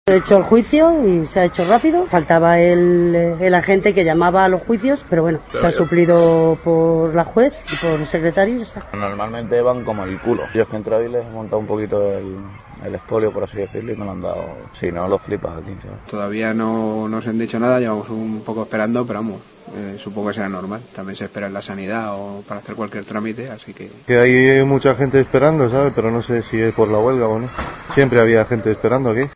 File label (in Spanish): noticias